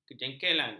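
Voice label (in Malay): "kejengkelan", Malay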